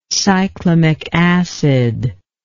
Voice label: "cyclamic acid", english